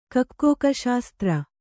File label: "ಕೊಕ್ಕೋಕಶಾಸ್ತ್ರ", kannada